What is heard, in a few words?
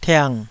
"tiang3", Taiwanese